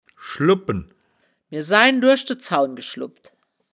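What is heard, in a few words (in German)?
"schlu-bbpen", wittlicher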